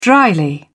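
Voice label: "drily", British English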